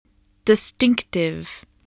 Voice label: "distinctive", spelling

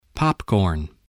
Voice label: "popcorn", English

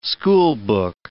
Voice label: "schoolbook", English

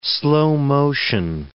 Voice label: "slow-motion", english

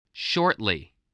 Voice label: "shortly", spelling

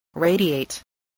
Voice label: "radiate", spelling

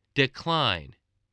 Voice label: "decline", English

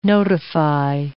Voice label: "notify", English

